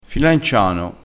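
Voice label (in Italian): "filanciano", dialettale crespinese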